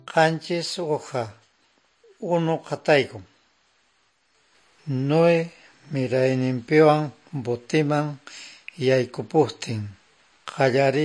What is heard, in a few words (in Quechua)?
good news quechua norte de bolivia apolo